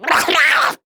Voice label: Pain Grineer Jester; Warframe; Battle Barks